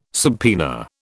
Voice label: "subpoena", British